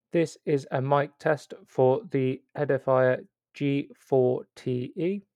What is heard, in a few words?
Mic Recording